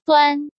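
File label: "Duān", Chinese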